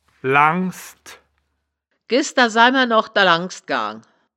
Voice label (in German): "langsd", wittlich